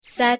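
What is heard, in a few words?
"sad", Persian, female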